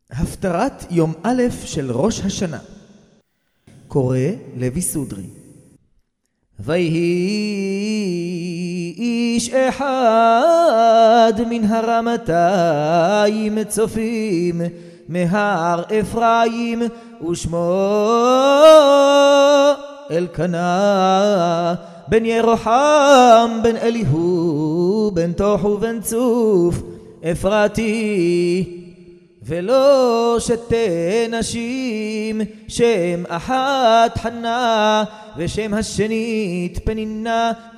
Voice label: recorded tanach holiday haftorot ashkenazi; Haftarot des fêtes (Yamim Tovim) sur rite Achkénase

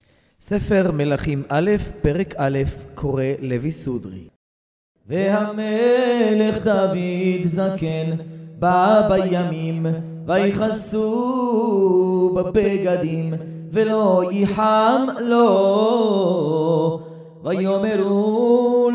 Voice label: recorded tanach melachim morrocan

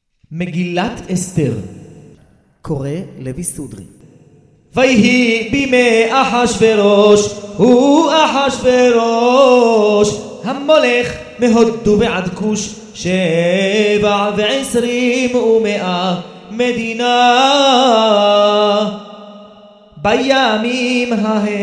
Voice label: recorded tanach megilat ester sefardi moroccan; Méguilat Esther sur rite Séfarade Marocain

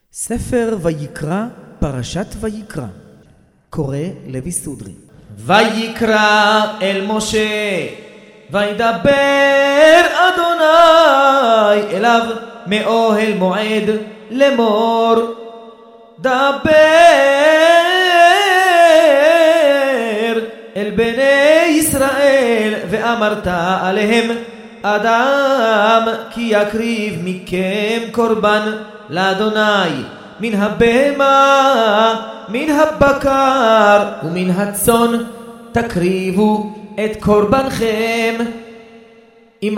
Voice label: recorded tanach vayikra sefardi moroccan; Houmach Vaykra avec Haftarot sur rite Séfarade Marocain

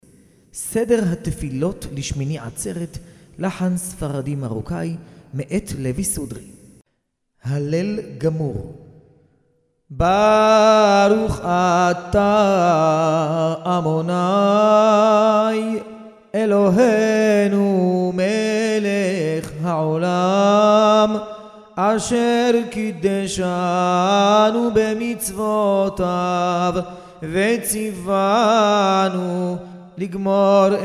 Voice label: recorded prayer shemini atzeret morocco; תפילות שמיני עצרת נוסח מרוקו (3 שעות)

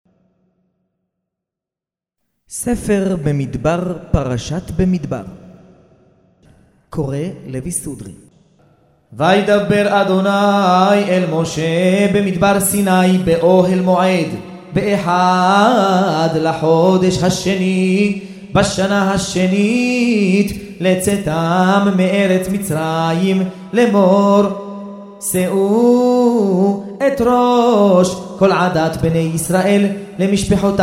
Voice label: recorded tanach bemidbar sefardi moroccan; Houmach Bemidbar avec Haftarot sur rite Séfarade Marocain

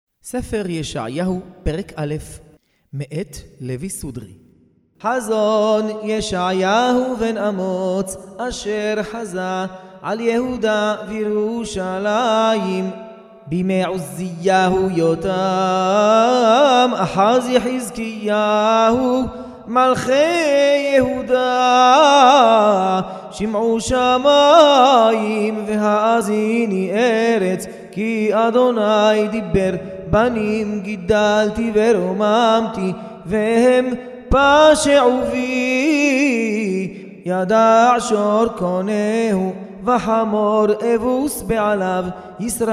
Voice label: recorded tanach yeshayahu morrocan

